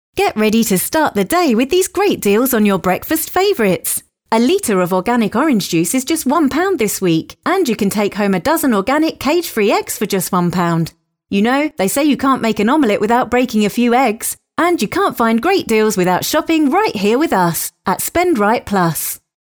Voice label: Casual Conversational

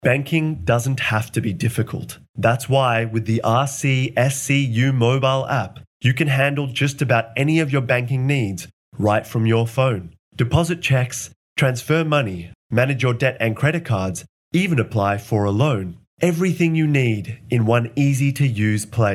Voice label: Professional

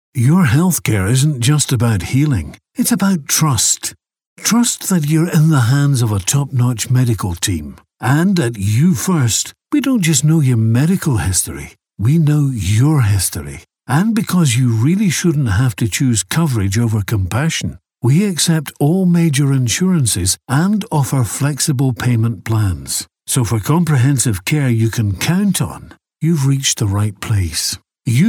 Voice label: Scottish Sincere

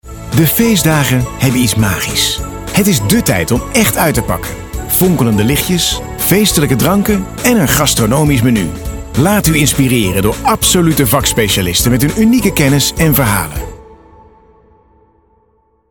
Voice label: Instore Commercial Christmas style

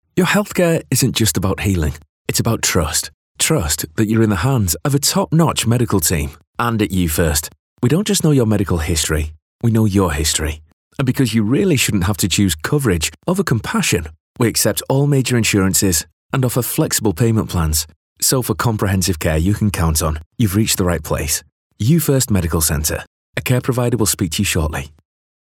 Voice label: Sincere

